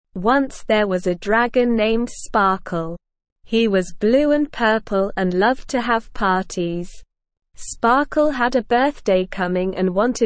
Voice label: ESL Short Stories for Kids Beginner SLOW Reading; The Dragons Birthday

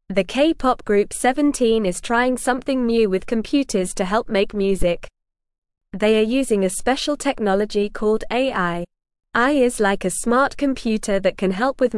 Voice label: English Beginner NORMAL Reading

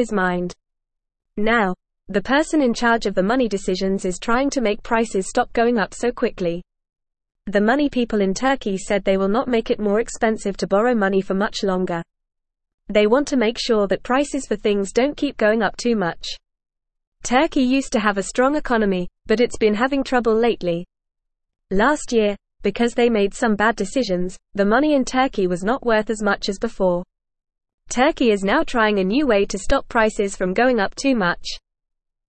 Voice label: English Lower Intermediate FAST Reading; Turkey Raises Borrowing Costs to Control Rising Pri

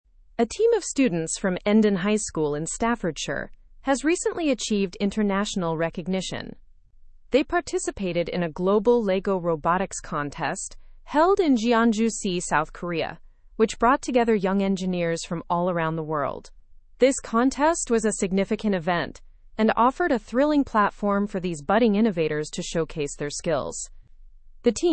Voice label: english through news upper intermediate; endon high school students earn global recognition

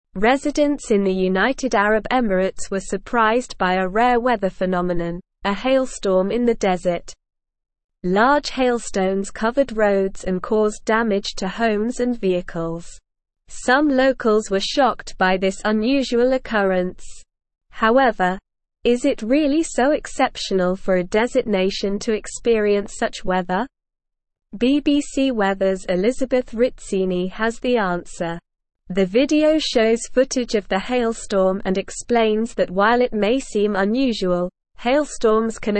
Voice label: English Advanced SLOW Reading; Rare hailstorm surprises UAE residents causes damage

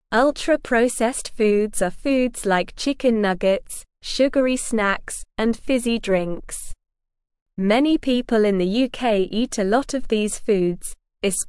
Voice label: English Beginner SLOW Reading; Are Ultra Processed Foods Bad for Our Health

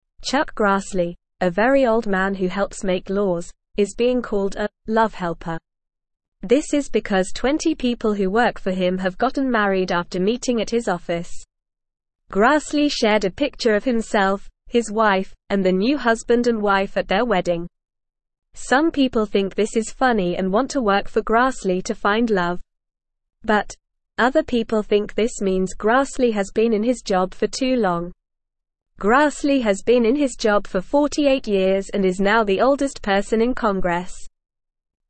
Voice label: English Newsroom Beginner NORMAL Reading; Chuck Grassley The Love Helper in Congress

